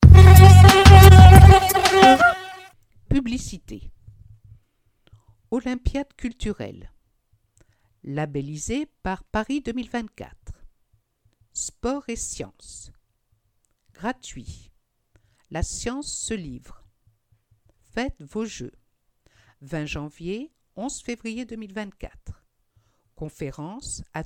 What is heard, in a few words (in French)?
publicite; L'Abeille - version sonore; L'Abeille 52